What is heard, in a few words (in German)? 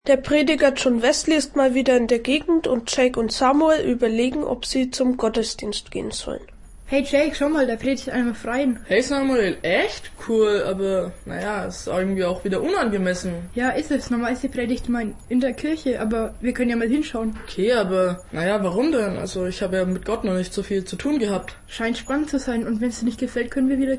hoerspiel; emk; john wesley